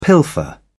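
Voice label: "pilfer", British English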